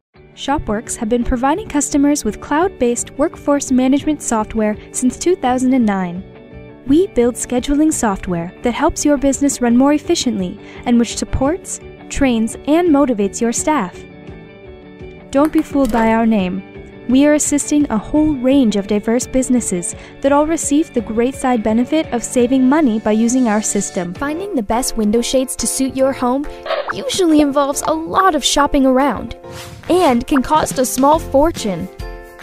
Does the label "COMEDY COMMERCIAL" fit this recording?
No